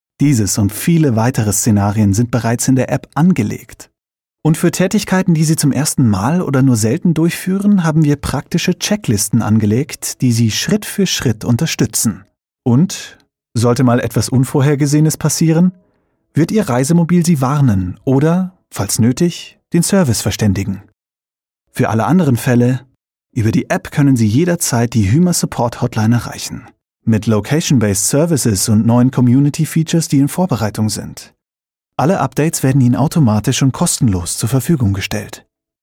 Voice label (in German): DEU App erklaeren; Hymer